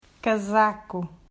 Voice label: "Casaco", Portuguese